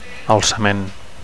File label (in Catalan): "alcament", Catalan; uprising